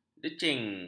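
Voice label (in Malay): "decing", Malay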